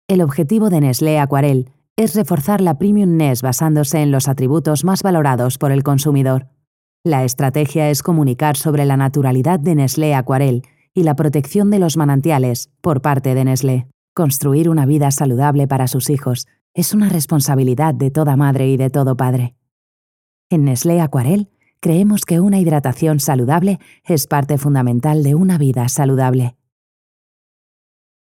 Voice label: spanish castilian training e-learning

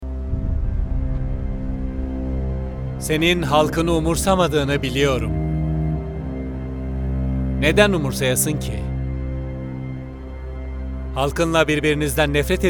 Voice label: turkish tv shows

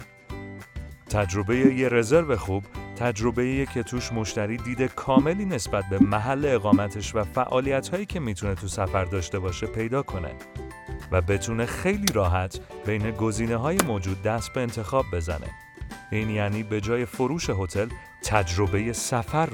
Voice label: farsi promos; Farsi/Persian

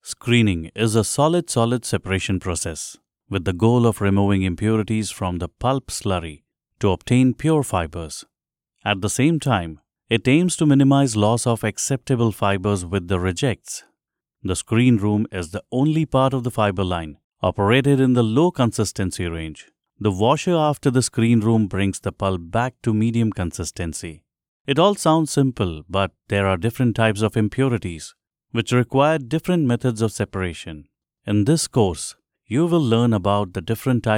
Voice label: english indian documentaries

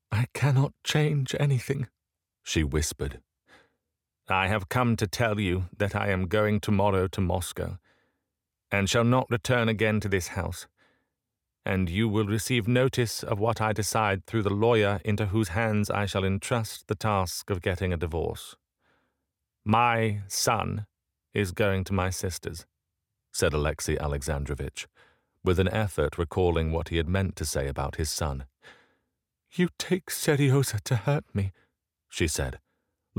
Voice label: english international audiobooks

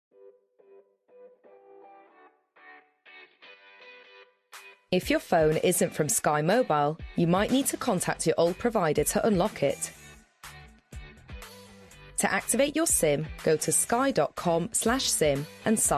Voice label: english british corporate videos

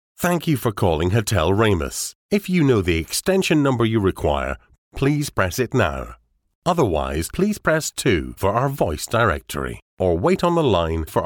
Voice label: English Scottish IVR

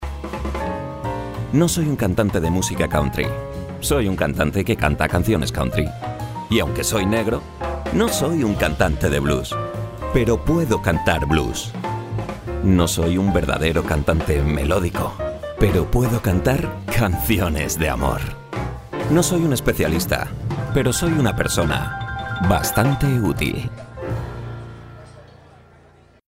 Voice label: spanish audiobooks

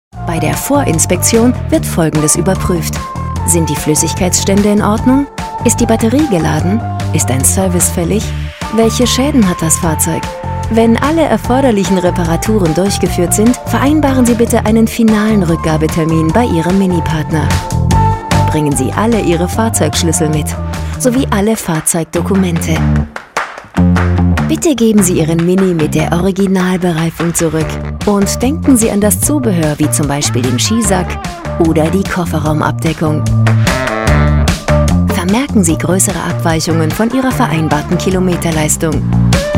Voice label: german narration

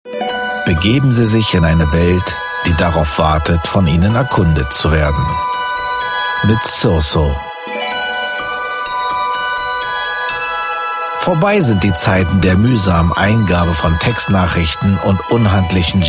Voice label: german narration

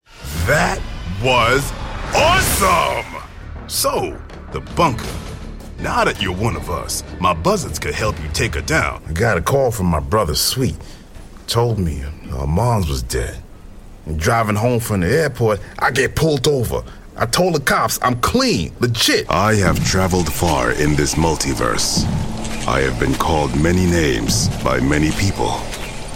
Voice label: english american video games

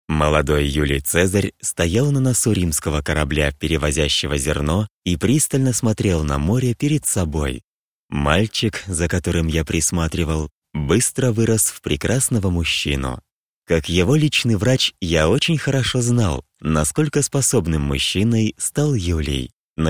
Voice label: russian audiobooks

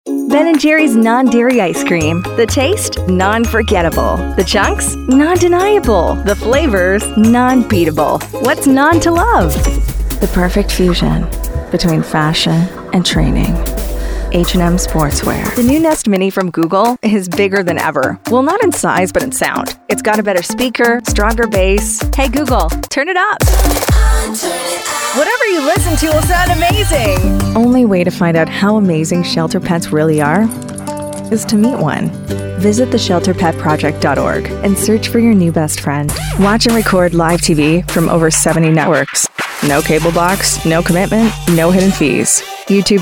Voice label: english american commercial; Inglés (Estados Unidos); actriz de voz profesional

